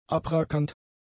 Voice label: "abrackernd", German; adjectives

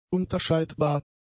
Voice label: "unterscheidbar", German; adjectives

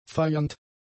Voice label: "feiernd", german; adjectives

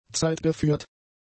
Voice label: "zeitgeführt", German; adjectives

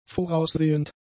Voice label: "voraussehend", German; adjectives

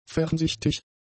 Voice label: "fernsichtig", german; adjectives

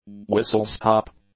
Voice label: "whistle-stop", English; nouns

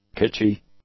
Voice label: "kitschy", english; adjectives

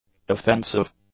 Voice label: "offensive", english; adjectives

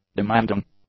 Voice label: "demanding", english; adjectives

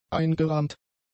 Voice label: "eingerahmt", German; adjectives

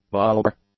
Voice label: "bulbar", English; adjectives

